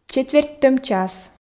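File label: четвертьтӧм час, Komi, female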